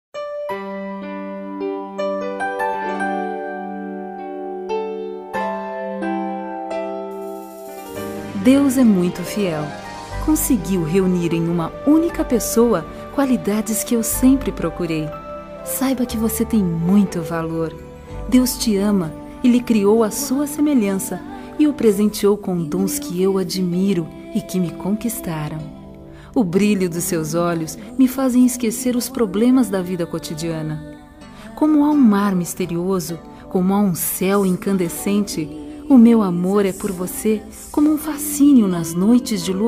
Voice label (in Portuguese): Telemensagem Declaração Evangélico; Temas Evangelicos; Fem